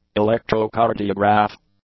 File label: "electrocardiograph", English